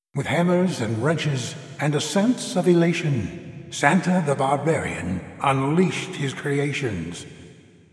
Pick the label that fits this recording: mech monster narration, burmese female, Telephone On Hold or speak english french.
mech monster narration